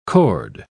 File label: "chord", pronounce